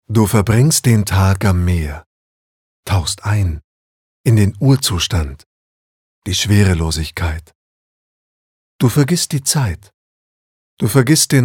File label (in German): Schauspiel Sanft